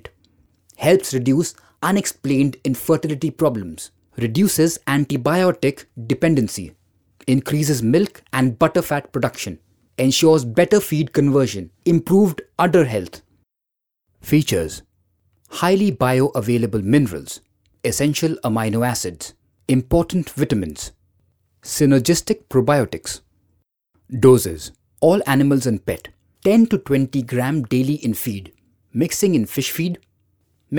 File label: stock saver voice over